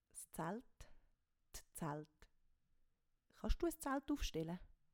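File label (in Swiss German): "s Zaelt", Swiss German; Wortschatz Kapitel 1; Kapitel 1 Hauptwortschatz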